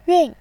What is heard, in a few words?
"yun4", voice